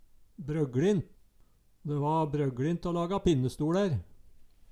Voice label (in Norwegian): brogLent, Numedalsmål